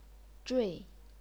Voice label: "zhui4", chinese pinyin

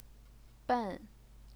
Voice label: "ben4", Chinese pinyin

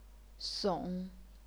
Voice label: "song3", chinese pinyin

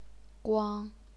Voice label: "guang1", Chinese pinyin

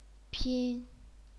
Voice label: "pin1", chinese pinyin

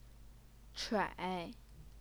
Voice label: "chuai3", Chinese pinyin

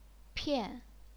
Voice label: "pian4", chinese pinyin

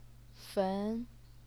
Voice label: "fen2", chinese pinyin

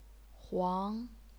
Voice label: "huang2", chinese pinyin

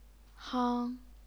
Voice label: "hang1", chinese pinyin

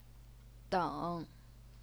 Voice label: "deng3", Chinese pinyin

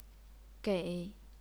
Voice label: "gei3", chinese pinyin

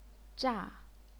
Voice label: "zha4", chinese pinyin

